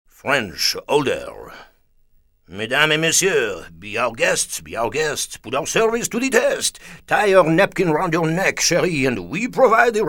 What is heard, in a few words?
FRENCH (MATURE)